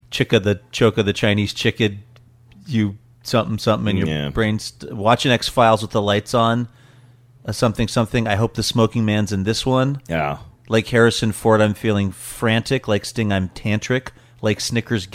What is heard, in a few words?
reciting portion